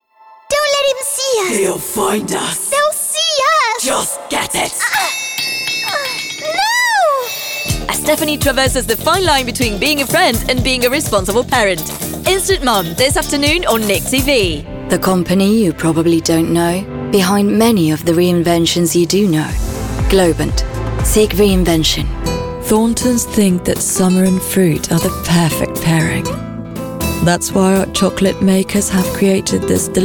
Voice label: Commercial, ENG

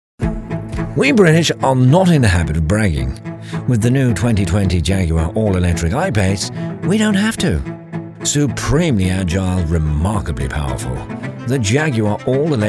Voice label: Commercial

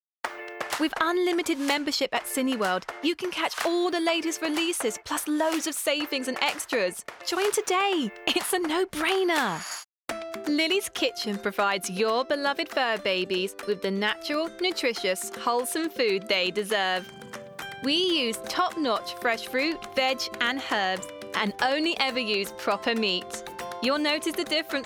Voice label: Commercial

